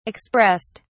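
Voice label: "expressed", British English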